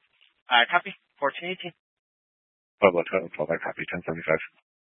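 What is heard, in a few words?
Troop 2A Dispatch, scanner audio recording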